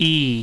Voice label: "E", male; Mac Concentration Sounds; Letter & Number Sounds